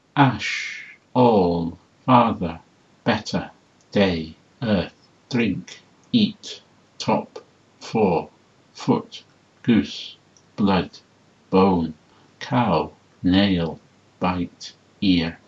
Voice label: vowels en-br

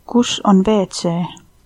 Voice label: toilet, estonian

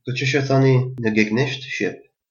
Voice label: how to say, albanian gheg

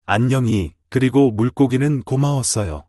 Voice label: so long Korean; 안녕히, 그리고 물고기는 고마웠어요; (annyeonghi, geuligo mulgogineun gomawoss-eoyo)

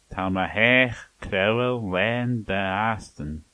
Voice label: hovercraft, manx; Manx (Gaelic); Ta my haagh crowal lane dy astanyn

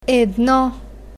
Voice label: "edno", Bulgarian, numbers

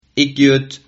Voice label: my name is, Sylt Frisian

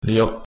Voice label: hakka

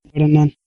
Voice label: go away hausa

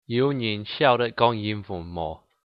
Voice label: anyone speak english, hakka

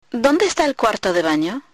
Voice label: "toilet", Spanish

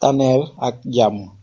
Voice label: getwellsoon, wolof

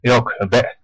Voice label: yes a little, west flemish; Joak, a bi'g'ge; Klappe ghy Westvlams?

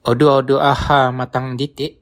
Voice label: do you come here often, bonggi

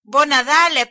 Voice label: christmas, galician

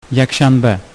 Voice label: sunday uzbek

